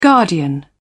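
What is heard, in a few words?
"guardian", British English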